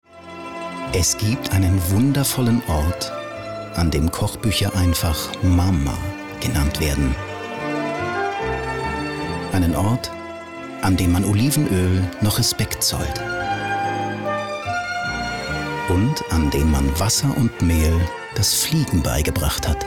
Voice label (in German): off sprache lang; LOFT VOICES